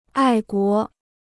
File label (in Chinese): "爱国", Chinese